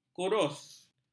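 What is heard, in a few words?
"kurus", Malay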